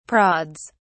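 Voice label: "prods", English, British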